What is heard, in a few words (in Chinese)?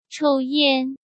"抽烟", Chinese